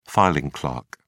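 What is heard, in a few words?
"filing clerk", English, British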